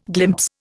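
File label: "glint", Indian; Dictionary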